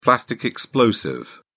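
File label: "plastic explosive", British English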